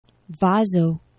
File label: "vazo", Esperanto; geneva